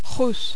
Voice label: "rousse", French